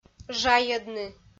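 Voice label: "жайӧдны", Komi, female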